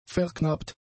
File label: "verknappt", German; adjectives